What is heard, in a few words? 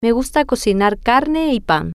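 Me Gusta Cocinar Carne Pan, female, spanish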